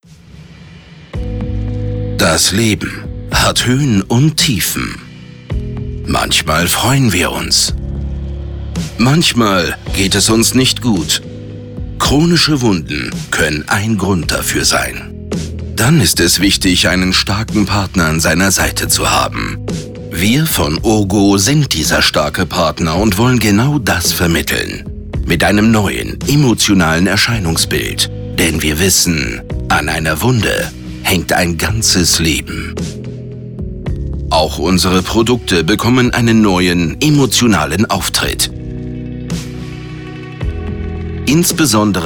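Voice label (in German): Imagefilm, Sprecher, Männer; URGO Medical Motivationsfilm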